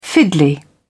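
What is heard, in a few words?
"fiddly", English, British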